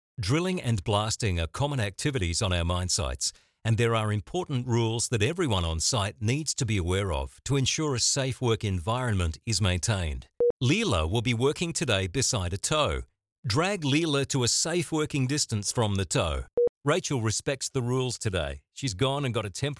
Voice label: english australian e-learning

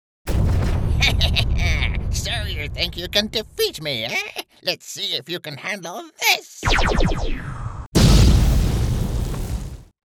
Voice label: english british animation

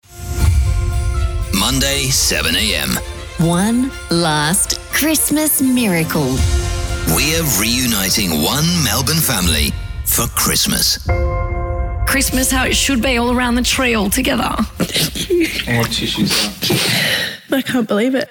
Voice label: english australian radio imaging

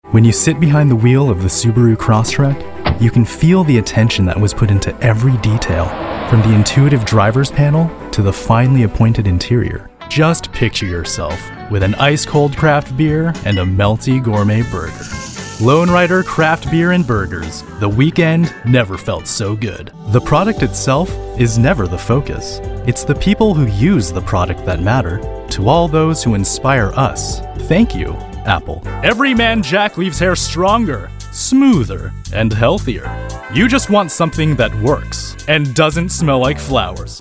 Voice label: english american commercial

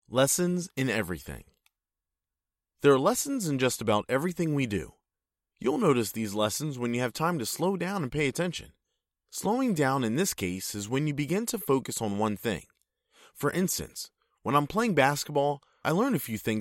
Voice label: english american narration

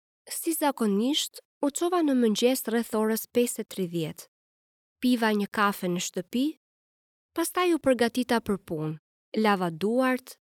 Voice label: albanian narration

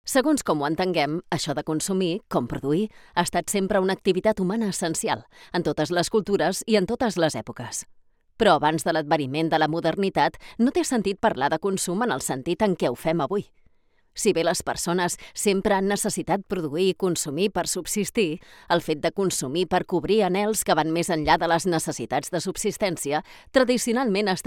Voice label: catalan e-learning

